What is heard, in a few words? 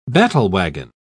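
"battlewagon", British English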